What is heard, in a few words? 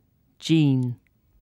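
"jean", British English; pronunciations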